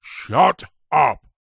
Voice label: human old grumpy man english says angrily shut up; zapsplat

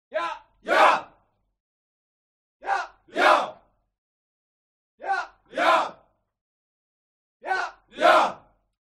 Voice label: HUMAN CROWD Military Shout German "Yes"; smartsound

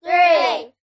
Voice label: human children x5 under 10 english say three; zapsplat

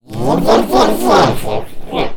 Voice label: Alien Scientist; little robot sound factory

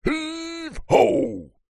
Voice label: male voice pirate heave ho; human; zapsplat

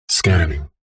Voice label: computer console voice robotic male says scanning; science fiction

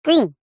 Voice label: cartoon voice high pitched says green

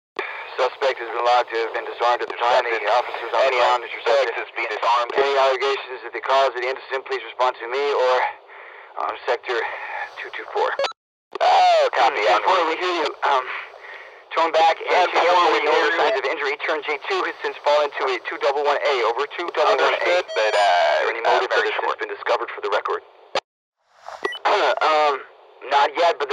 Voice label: Radio Police Crime Scene American; noise creations

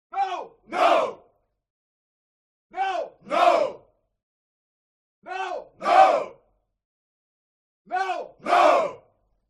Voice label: HUMAN CROWD Military Shout English "No"; smartsound

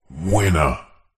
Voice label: multimedia male voice processed says winner

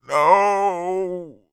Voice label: voice male in peril says "no"; human

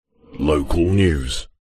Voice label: radio prod male voice says local news processed

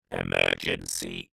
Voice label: science fiction robot says emergency

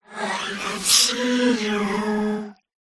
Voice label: horror voice ghostly says i can see you

